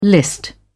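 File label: "list", British English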